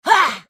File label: spellcast effort; Fizz